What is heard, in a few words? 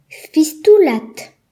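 "wag", Breton; gcompris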